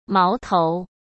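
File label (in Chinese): "矛头", Mandarin Chinese; hsk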